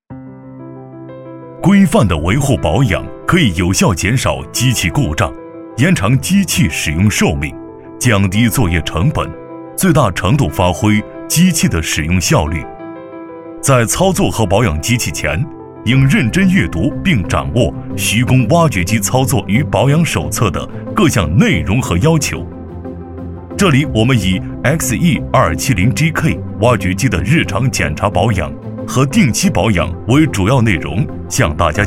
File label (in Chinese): 男 操作视频 产品保养介绍 庄重有说服力; 厚重力度